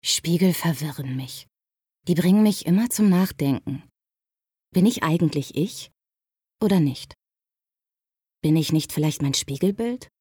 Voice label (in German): Innerer Monolog; LOFT VOICES